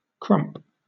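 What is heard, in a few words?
"crump", British English; pronunciations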